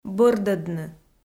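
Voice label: "бӧрдӧдны", Komi, female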